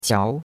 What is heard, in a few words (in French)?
"qiao2", chinois; Cours-de-Chinois